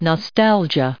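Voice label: "nostalgia", us English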